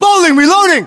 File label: inform reloading bowling, english, us